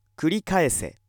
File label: male